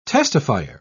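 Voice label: "testifier", English, British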